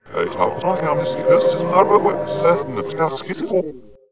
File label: fast talk